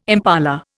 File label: "impala", Indian